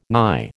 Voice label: "nigh", American

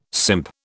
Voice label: "simp", British

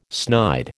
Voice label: "snide", American

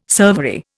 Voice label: "servery", Indian

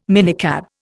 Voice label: "minicab", Indian

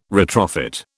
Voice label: "retrofit", British

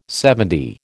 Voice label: "LXX", American

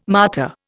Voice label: "martyr", Indian

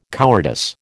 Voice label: "cowardice", American

